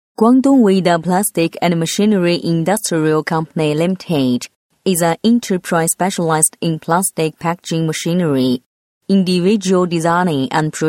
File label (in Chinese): 英女, 广告, 企业英文, 成熟正式; 广东韦达; 成熟知性